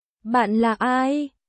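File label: Vietnamese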